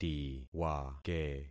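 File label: "tiwake", concatenated syllables; Affixing experiment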